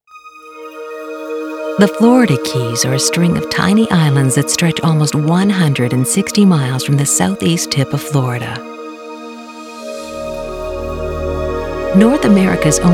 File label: Narration